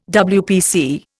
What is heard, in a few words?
"WPC", Indian